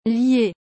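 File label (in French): "lier", French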